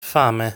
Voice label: "Fame", Italian

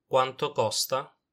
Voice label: "quanto costa", Italian

